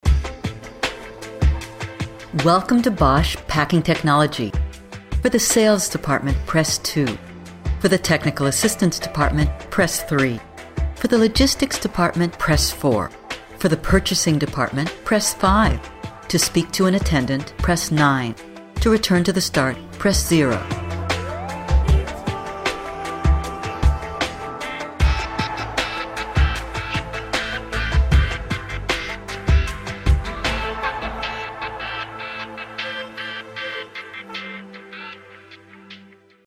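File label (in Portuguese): ATENDIMENTO DIGITAL - VOZ - USA - INGLÊS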